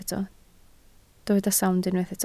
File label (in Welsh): "sownd" Welsh